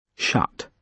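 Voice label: "shut", British English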